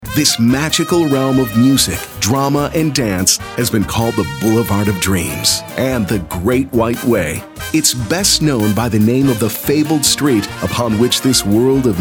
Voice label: Broadcast Narration